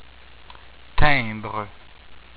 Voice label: "Timbre", french

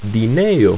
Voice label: "dineo", Ido

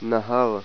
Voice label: "river", Hebrew

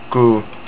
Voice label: Month, estonian

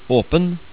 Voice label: "apen", Norwegian

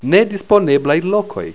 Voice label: "senlokoj", esperanto

